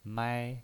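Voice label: "mai", pinyin voice, Mandarin Chinese, first tone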